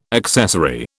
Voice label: "accessary", British